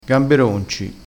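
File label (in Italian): "gamberonci", vocabolario dialettale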